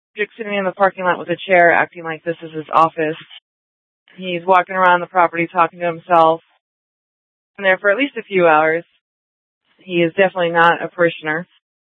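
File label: Scottsdale PD North Dispatch; Nth Dispatch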